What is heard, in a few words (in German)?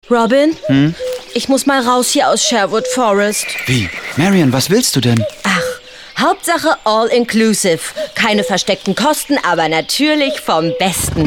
WERBUNG